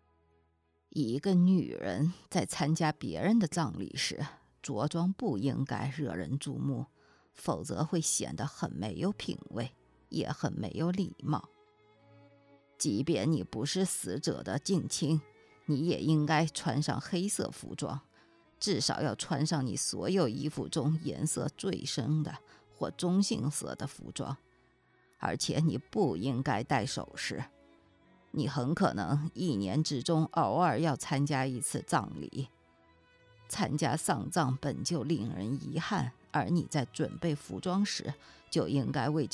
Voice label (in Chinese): 样音普通话老年女性音有声书作者旁白